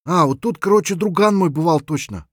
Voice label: scav mutter, voice; Escape from Tarkov; scav6

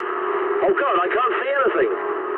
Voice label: eng searchlite mood med, speech eng; War Thunder

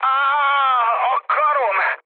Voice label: damaged pilot, speech hun; War Thunder

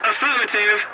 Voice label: voice message yes, english; War Thunder

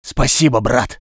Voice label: voice sov infantry

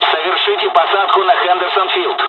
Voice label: landing, dialogs russian; War Thunder

